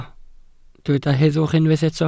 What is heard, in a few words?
"heddwch", Welsh